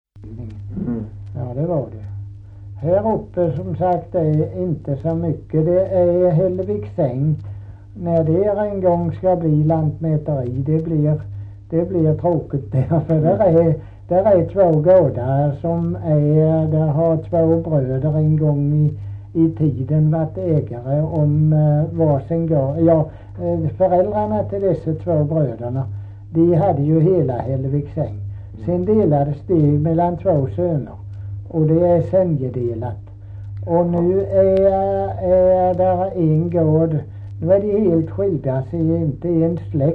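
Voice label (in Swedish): Kristianopel, Swedish dialect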